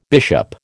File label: "bishop", American